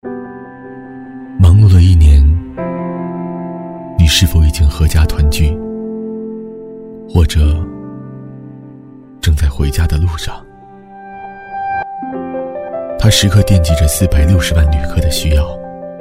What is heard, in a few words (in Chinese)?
男 微电影; 回家